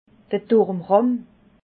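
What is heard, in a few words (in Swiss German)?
"turmrahm", Alsatian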